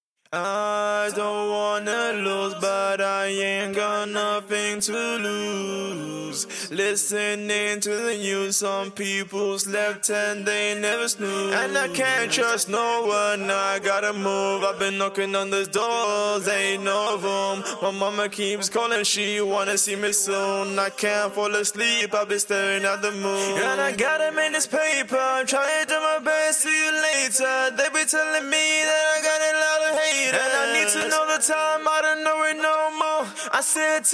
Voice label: hip hop, 80, Fm, acapella